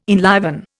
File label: "enliven", Indian